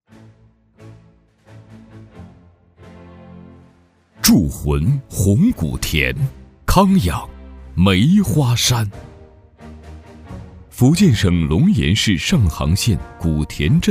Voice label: 男 宣传片; 铸魂红古田; 康养梅花山

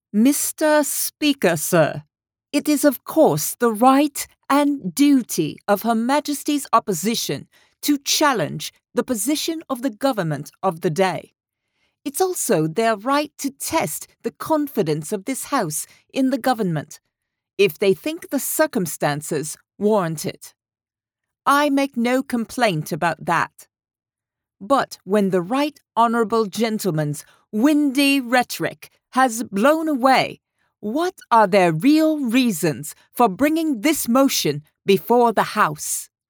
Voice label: 外籍 英 女 模仿 撒切尔夫人; Margaret Thatcher

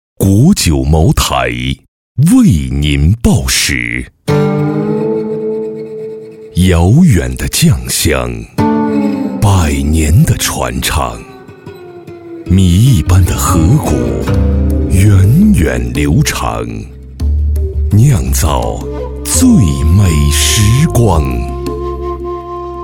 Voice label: 男 栏目导视; 国酒茅台 为祖国喝彩

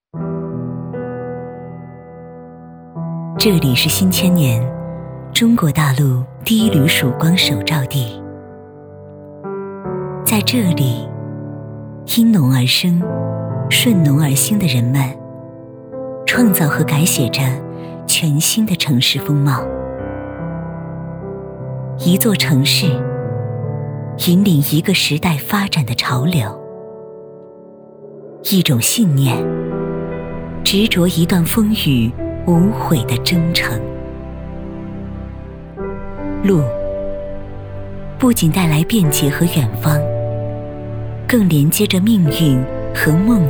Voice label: 宣传片 磁性典雅; 宣传片-磁性典雅-农商行

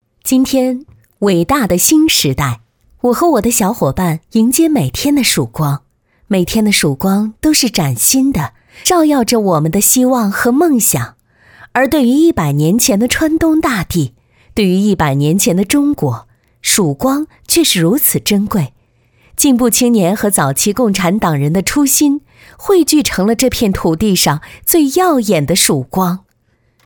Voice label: 女 党政专题自述自然

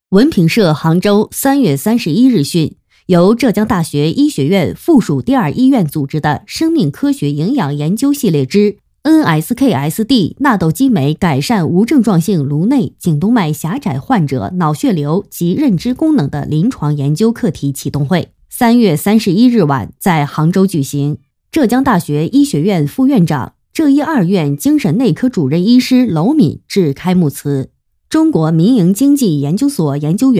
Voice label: 女 专题 新闻

